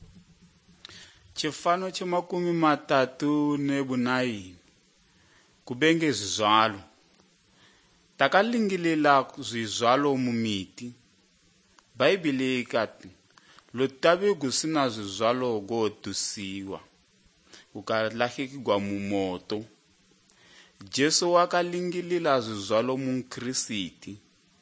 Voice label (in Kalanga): good news kalanga lilima, drama